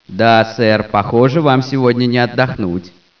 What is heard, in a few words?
check atten, scientist